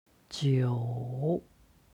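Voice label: "jiu", Chinese; 九; HSK Level One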